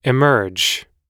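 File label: "emerge", English; oxford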